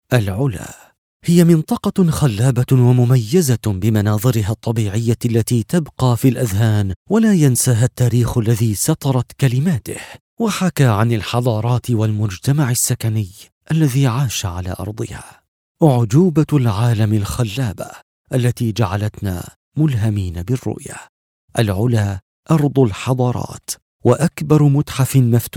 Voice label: Epic Corporate

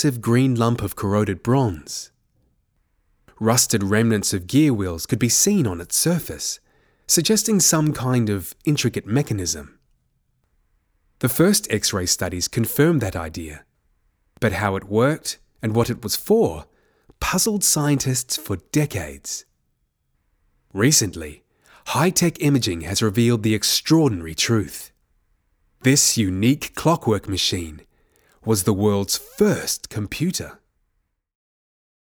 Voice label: Greek, en-AU

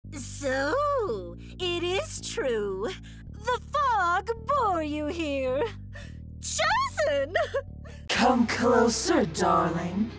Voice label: Character

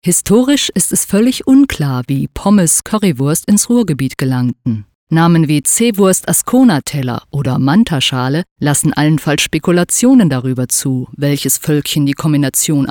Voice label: Narration Documentary mid; en-US

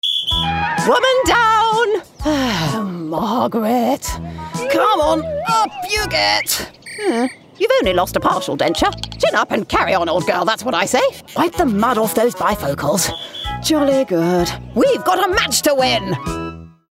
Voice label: Animation Posh Jolly Lady

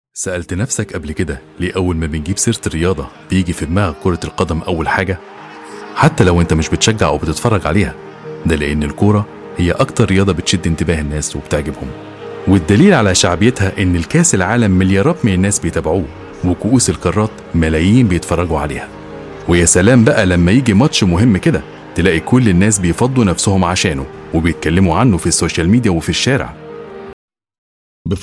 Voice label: Show Reel, Egyptian

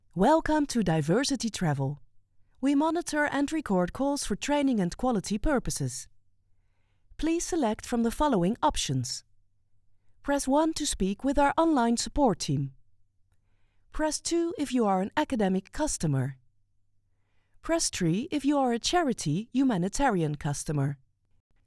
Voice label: IVR English with mid european accent

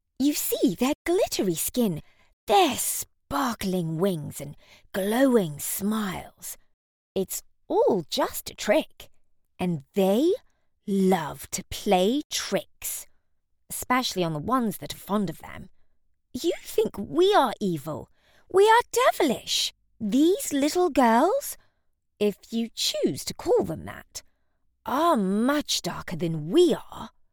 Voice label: Fairy; en-US

